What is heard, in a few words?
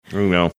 unintelligible sound